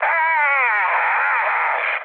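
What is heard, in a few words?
Italian damaged pilot mood high, speech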